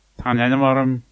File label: my name is, manx